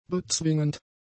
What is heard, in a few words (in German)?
"bezwingend", German; adjectives